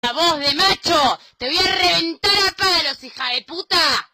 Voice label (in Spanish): voz de macho